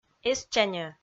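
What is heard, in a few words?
"эстчаньӧ", Komi, female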